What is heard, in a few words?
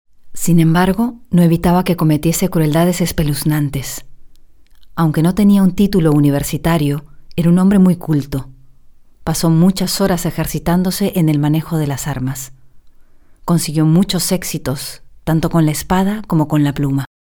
locutora espanol neutro latin american voiceover; locutora de español neutro